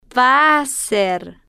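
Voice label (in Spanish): va a hacer, Spanish, female, slow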